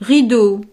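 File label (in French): "rideau", French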